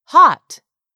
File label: "hot", English; 3000 words